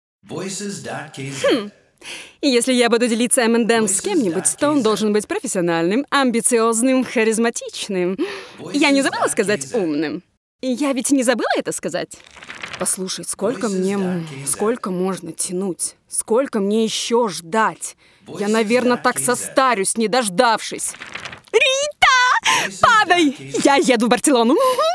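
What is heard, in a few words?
Russian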